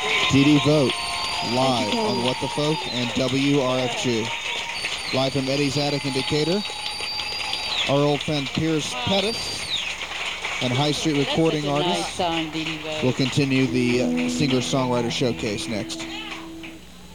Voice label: radio announcer; songwriter showcase - eddie's attic - decatur